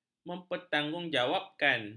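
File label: "mempertanggungjawapkan", Malay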